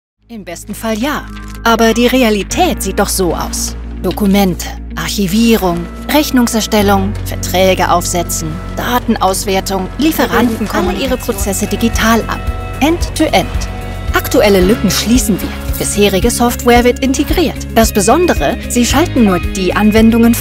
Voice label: erklarfilm; simpleview

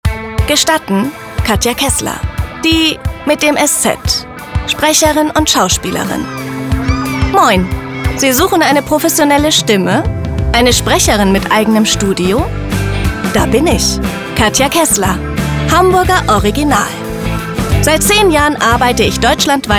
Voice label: sprecherdemos vorstellung

